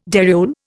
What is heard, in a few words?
"dariole", Indian